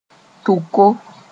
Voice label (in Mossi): "tʋko", moore; a nest